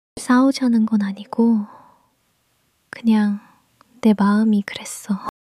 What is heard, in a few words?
shy